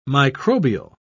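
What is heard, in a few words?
"microbial", British English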